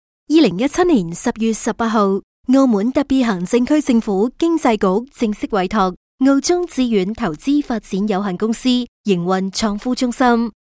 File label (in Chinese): 粤女 专题; 澳門青年創業孵化中心